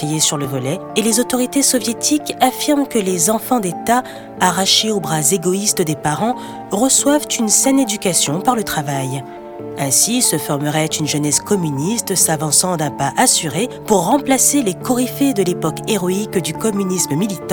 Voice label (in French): extrait livre audio type documentaire narratif medium grave; L ENFER DES ENFANTS Kokovstov